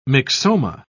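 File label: "myxoma", British English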